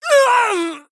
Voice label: Female Draenei Death